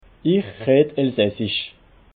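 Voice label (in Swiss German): "redd", Alsatian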